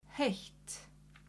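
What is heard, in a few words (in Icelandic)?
"heitt", Icelandic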